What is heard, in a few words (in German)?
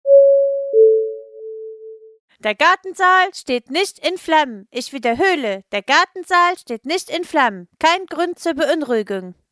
durchsage